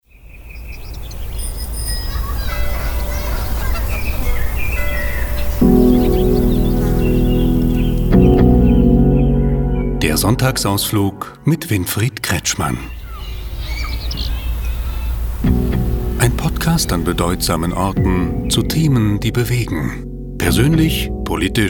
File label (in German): Podcast